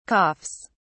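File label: "coughs", British English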